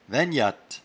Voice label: "vaingnatte", patois jura